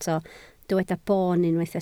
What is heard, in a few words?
"boon", Welsh